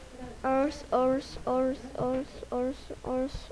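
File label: "also", spoken word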